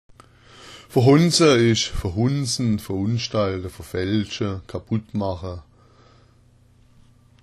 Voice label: verhonza, schwaebisch

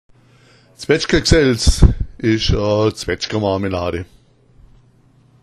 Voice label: "zwetschgagsaelz", schwaebisch; Zwetschga'gsälz ist Zwetschgenmarmelade

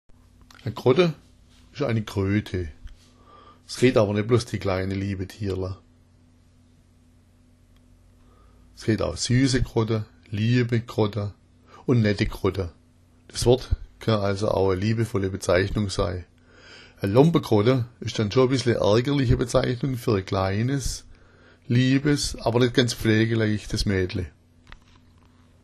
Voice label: "krotta", schwaebisch

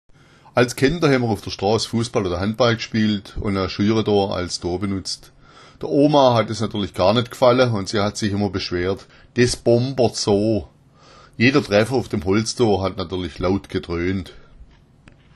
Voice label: bombera, schwaebisch

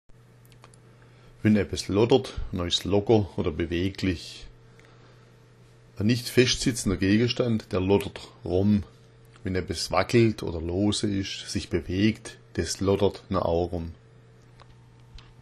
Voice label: "lottera", schwaebisch

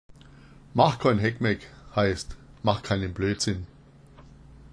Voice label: "haeggmaegg", schwaebisch

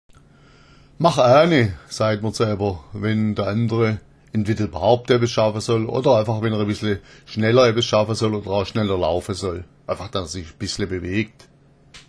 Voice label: "machahne", schwaebisch

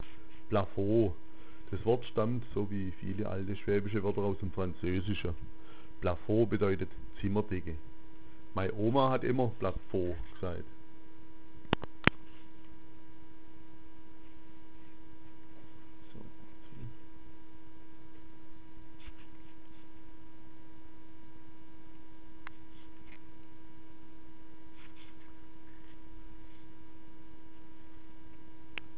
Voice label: "plafoh", schwaebisch

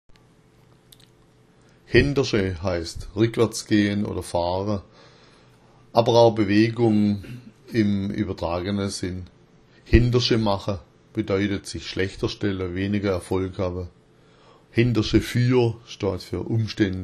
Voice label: hendersche, schwaebisch

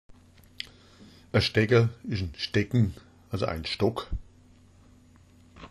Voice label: "stecka", schwaebisch

